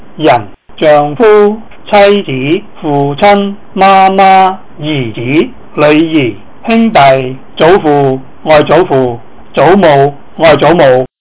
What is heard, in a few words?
cantonese people